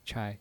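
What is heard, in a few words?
"chai", Mandarin Chinese, neutral tone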